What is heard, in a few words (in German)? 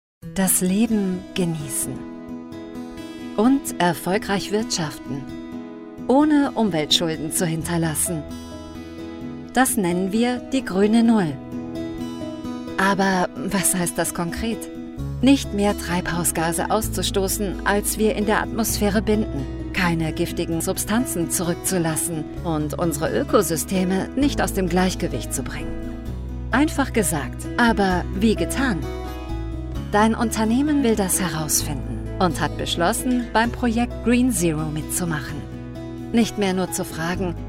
IMAGEFILM natuerlich nahbar; GreenzeroME